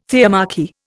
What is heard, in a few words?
"theomachy", Indian